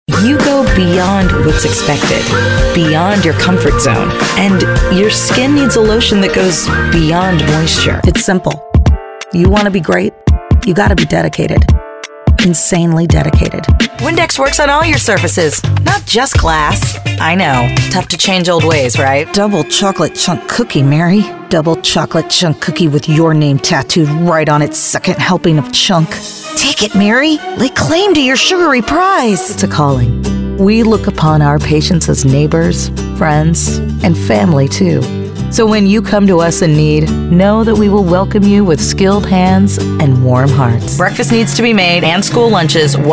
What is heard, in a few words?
VOICE OVER DEMO